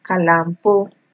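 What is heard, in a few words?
"kalampo", Nigerian Pidgin, female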